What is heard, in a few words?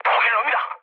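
Korean enemy detected mood low, speech; War Thunder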